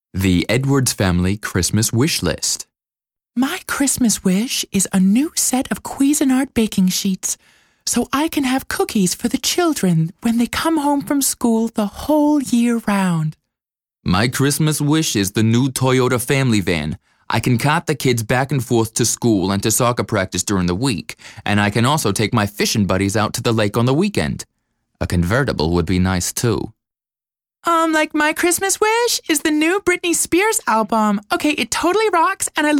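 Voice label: US English, Chargen; Fremdtake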